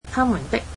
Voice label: "their", Cantonese